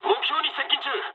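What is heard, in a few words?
near waypoint mood high, speech japanese; War Thunder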